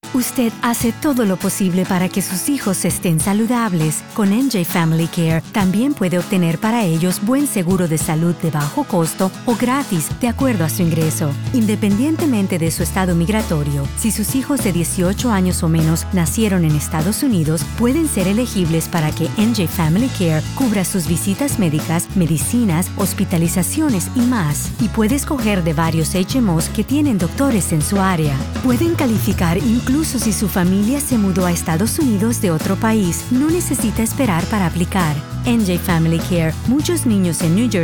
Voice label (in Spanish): spanish radio; njfamilycare